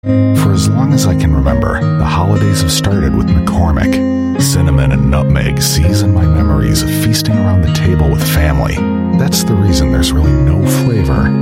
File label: US English commercials